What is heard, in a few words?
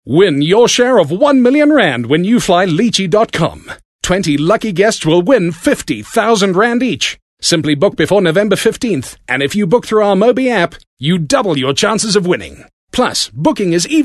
English Hardsell